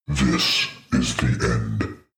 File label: demon dark voice thick speak this is the end